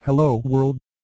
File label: filters, robot